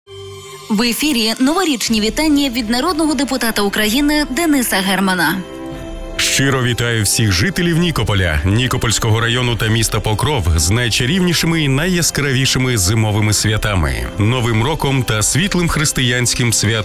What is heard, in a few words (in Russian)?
pozdravlenie german